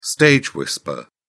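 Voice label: "stage whisper", British English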